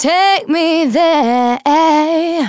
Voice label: Phrase Female; Vengeance Samples; Phrases